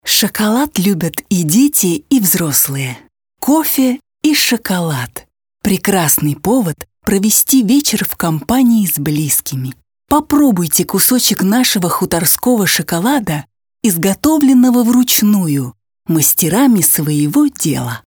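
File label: Russian female Sweet, vo examples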